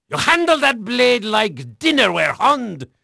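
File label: Dutch male, voice; Pirates Of The Caribbean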